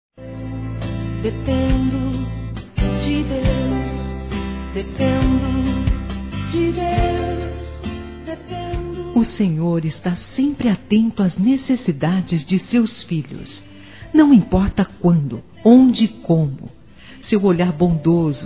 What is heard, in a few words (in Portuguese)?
ANIV AVO (O) (F), Telemensagens Aniversários Evangelico Fem